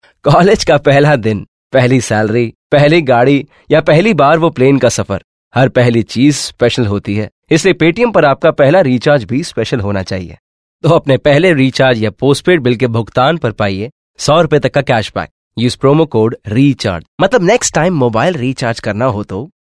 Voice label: Hindi, male voice